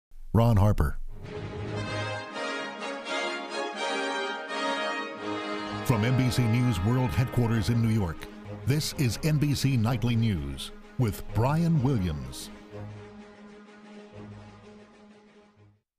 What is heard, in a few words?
Nightly News Open; NBC; Auditions